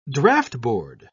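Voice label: "draughtboard", British English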